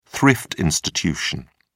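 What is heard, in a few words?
"thrift institution", British English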